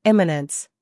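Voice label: "humor", British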